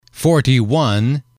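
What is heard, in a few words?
"forty one", English, male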